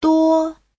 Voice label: "duo1", chinese

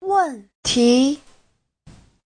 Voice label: "wen4 ti2", Chinese

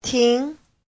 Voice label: "ting2", Chinese; Giáo trình Hán ngữ

